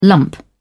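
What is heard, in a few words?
"lump", British English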